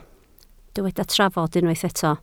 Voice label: "trafod", Welsh

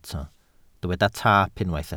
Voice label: "taap", Welsh

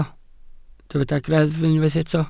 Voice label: "greddf", Welsh

